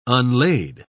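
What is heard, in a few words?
"unlade", English, British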